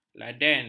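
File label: "laden", Malay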